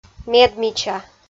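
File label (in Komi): "медмича", Komi-Zyrian, female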